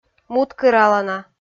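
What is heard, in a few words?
"муткыралана", Komi, female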